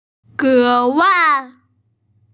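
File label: "ge wai", Mandarin Chinese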